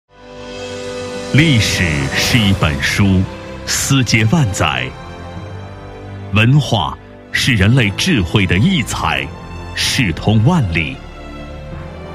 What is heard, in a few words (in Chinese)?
男40 专题, 国语男声配音; 枣庄博物馆